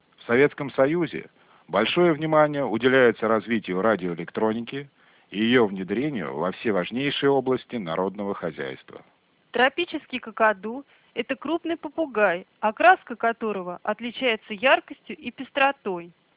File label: speech g729e